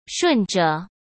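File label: "顺着", Mandarin Chinese; chinese-flashcards; hsk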